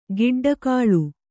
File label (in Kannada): "ಗೊಡ್ಡಾಕಳು", kannada